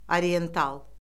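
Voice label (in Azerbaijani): "oriyental", Azerbaijani; Orfoqrafiya Lüğəti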